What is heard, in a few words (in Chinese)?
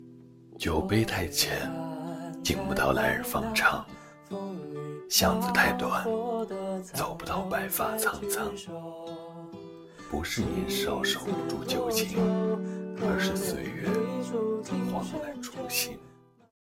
电台